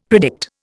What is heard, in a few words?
"predict", Indian; Dictionary